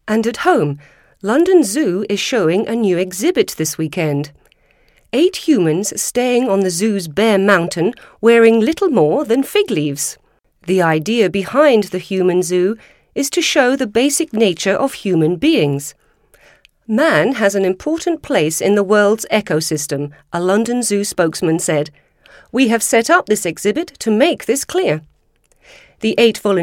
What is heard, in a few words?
Newsreader